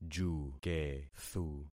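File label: "jukethu", concatenated syllables; Affixing experiment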